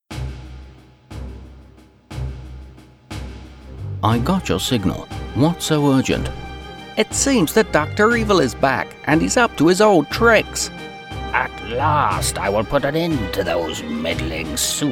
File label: english british video games